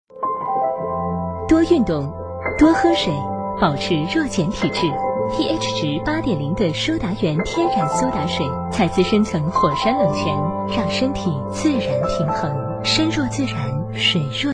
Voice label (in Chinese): 国语女声配音，品牌广告